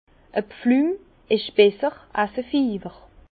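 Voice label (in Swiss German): "pflumfiewer", Alsace Bas Rhin; vin; une cuite est préférable à une fièvre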